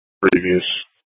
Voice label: PD Dispatch; Scottsdale; Sctdal PD Simulcast H; A01 - Sth Dispatch